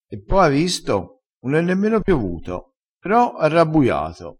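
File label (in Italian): "rabbuia", vocabolario dialettale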